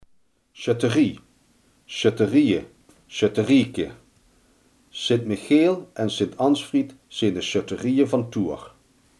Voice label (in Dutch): "schutterij", Limburgs